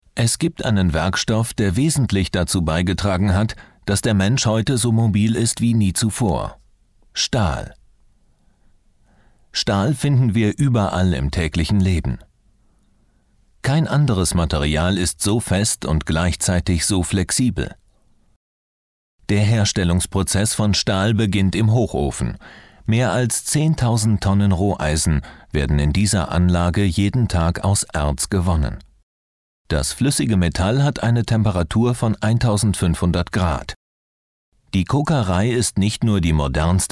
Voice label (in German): kommentar